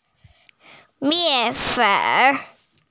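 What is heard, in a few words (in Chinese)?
"mian4 fen3" Mandarin Chinese, female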